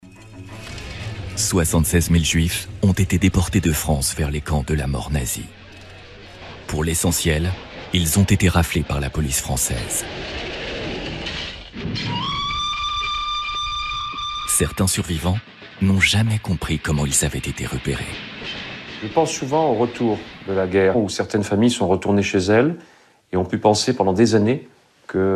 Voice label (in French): documentaire, Voix off documentaire; QUAND VICHY ESPIONNAIT LES FRANCAIS dramatique